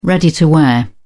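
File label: "ready-to-wear", British English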